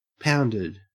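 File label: "pounded", Australian English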